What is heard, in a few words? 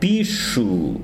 "gusano", Tsesungun